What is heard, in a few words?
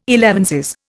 "elevenses", Indian